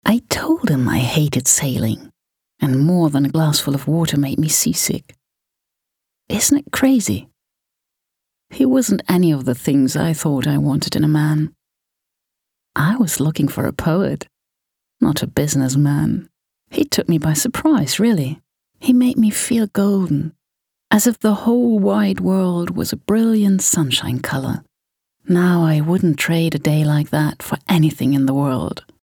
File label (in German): englisch hörbuch